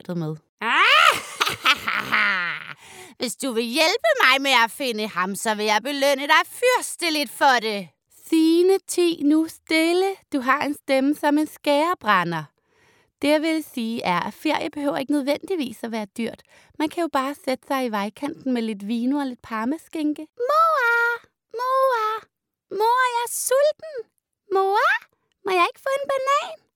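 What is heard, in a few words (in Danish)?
Stemmeprøve Dubbing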